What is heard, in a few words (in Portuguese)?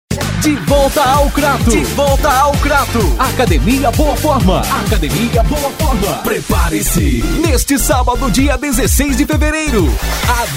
Locutor, vozes masculina